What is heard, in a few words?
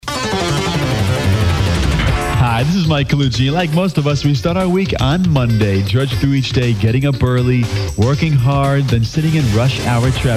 PROMO